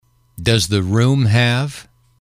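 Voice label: "does the room have", English, male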